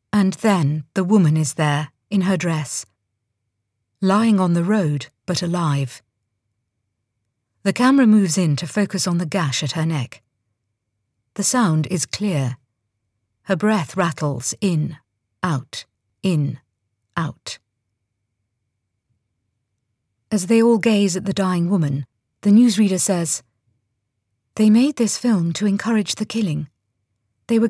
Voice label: audiobook; Aftermath

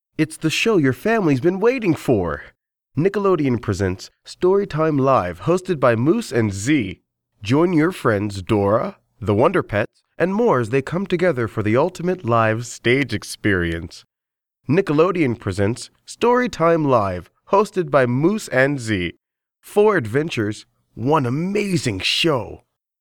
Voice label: Storytime Live Promo

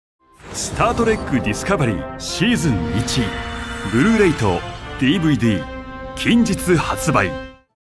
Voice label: trailer

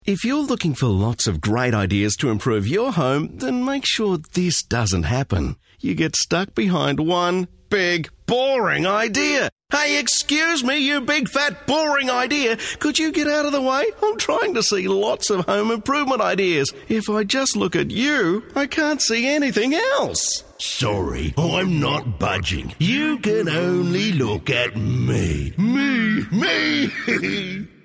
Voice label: Commercials

